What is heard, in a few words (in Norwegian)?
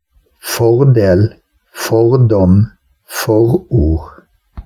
prefiks for med trykk skarre r